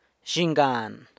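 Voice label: "son", Zapotec; zhinyhan; San Lucas Quiaviní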